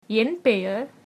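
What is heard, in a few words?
my name is, tamil, female; Tamil (formal); என் பெயர் ...; en peru ...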